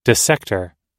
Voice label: "dissector", pronounce